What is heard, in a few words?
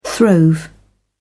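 "throve", British English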